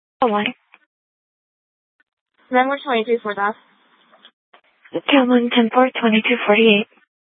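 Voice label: Sheriff, Parks, Sheriff radio scanner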